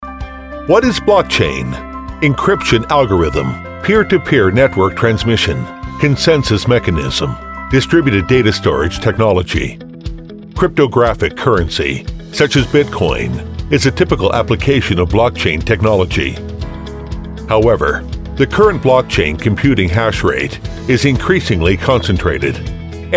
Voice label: 区块链 动态图形动画介绍片 深沉; Unicorn

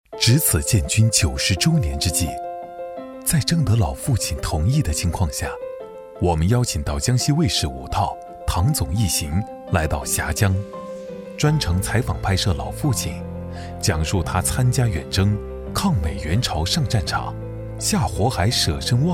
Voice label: 男 温暖纪录片

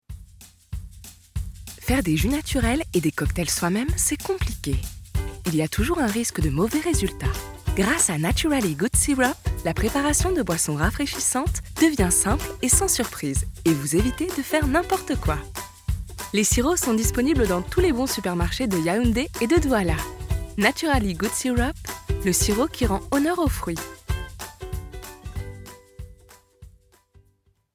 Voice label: 法语女, 轻快广告

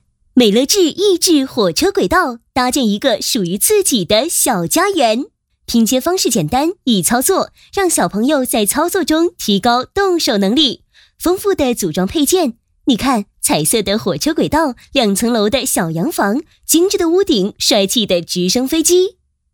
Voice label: 女, 玩具品牌宣传; 美乐智益智火车轨道

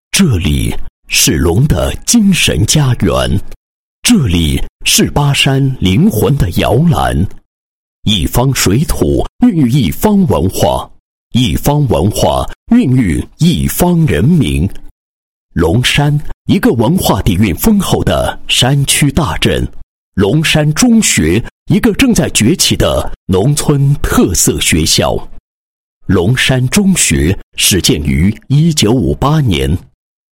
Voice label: 男 纪实; 初级中学校校园文化建设纪实

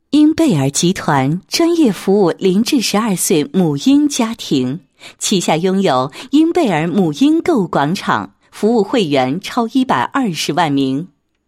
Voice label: 女 宣传广告 集团宣传广告; 婴贝儿

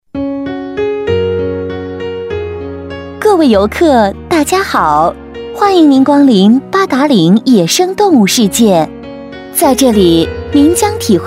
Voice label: 女 导游词, 国语女声配音; 八达岭长城导游词

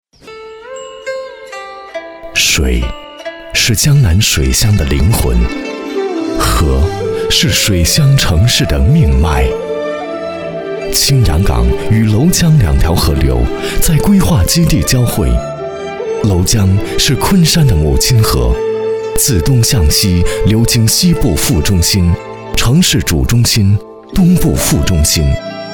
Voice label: 宣传片; 青阳港

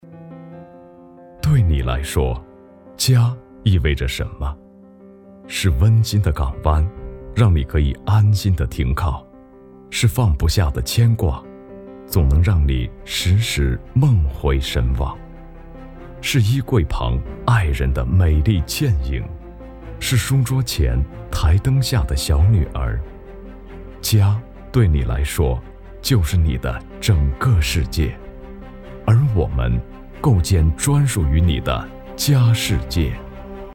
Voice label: 男, 广告; 千洋家居

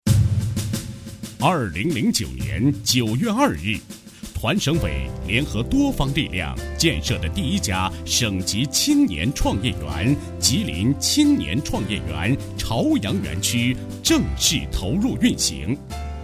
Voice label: 男 专题 大气; 央视大气专题; 吉林省青年创业园专题

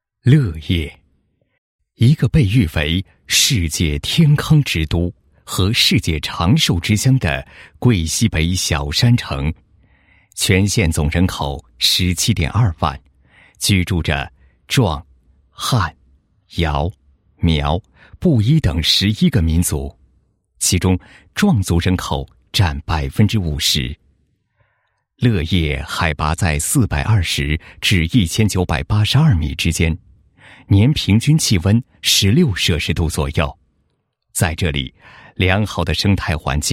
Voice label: 男-工作纪实; 纺织技艺传承保护工作纪实

